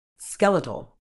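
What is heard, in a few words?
"skeletal", British English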